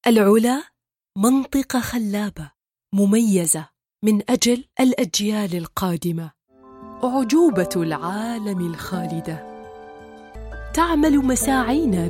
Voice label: arabic commercial